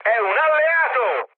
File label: player friendly fire mood high, speech it; War Thunder